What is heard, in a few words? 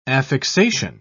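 "affixation", English, uk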